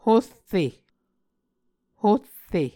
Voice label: "horre", Muscogee